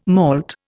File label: "malt", Indian